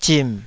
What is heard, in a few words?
"zim3", Taiwanese